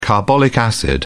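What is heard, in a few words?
"carbolic acid", English, British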